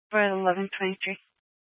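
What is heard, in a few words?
Dispatch, Scanner audio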